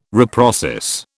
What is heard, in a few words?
"reprocess", British